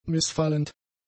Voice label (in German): "missfallend", German; adjectives